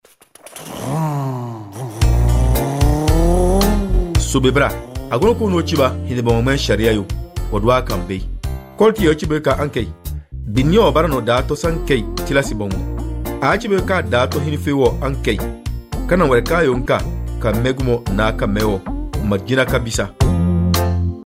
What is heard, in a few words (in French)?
CODE DE LA ROUTE DENDI